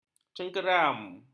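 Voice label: "cengkeram", Malay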